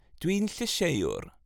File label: Im a vegetarian male